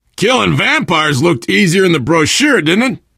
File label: player transition close, player survivor voice biker; source engine; left 4 dead 2